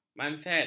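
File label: "manset", Malay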